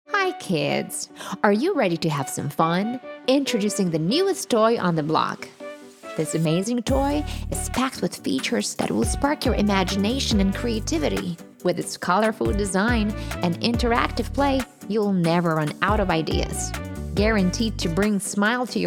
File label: voice over, toys